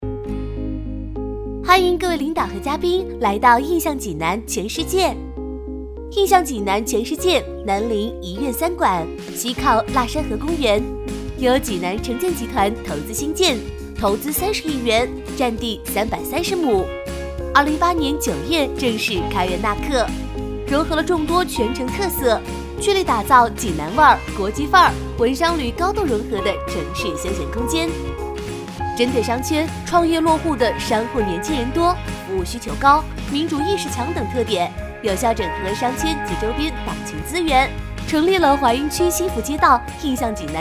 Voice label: 女 引导语 欢迎各位领导嘉宾 活泼 亲切

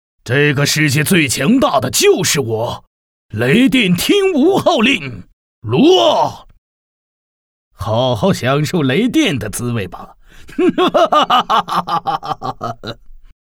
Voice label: 男 中青年 勇莽霸气

